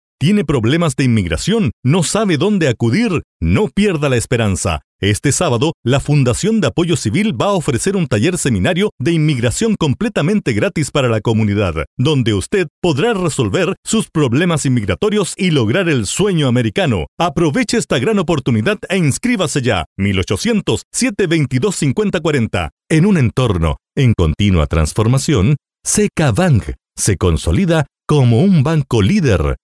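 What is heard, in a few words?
Spanish Latin America male voice over, sales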